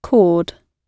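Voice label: "cord", British English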